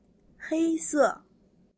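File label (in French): "noir", French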